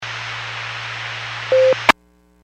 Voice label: Skywarn 146.67; Stevens Point